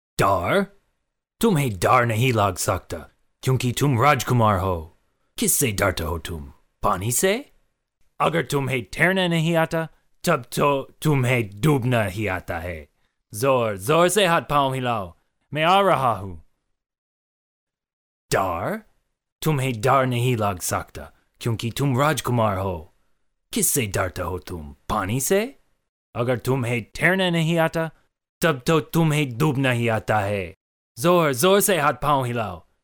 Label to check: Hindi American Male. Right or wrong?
Right